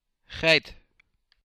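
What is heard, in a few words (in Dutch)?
"Geit", Dutch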